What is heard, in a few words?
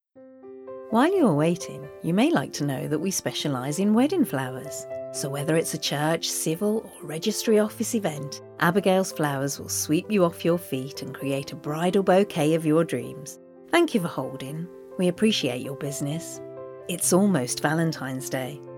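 english british interactive voice response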